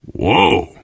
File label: violence awe, player survivor voice biker